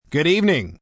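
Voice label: News Good Evening M, Broadcast, announcer, greetings, male; greetings/wrap-ups; VoiceBox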